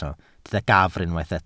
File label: "gafr", Welsh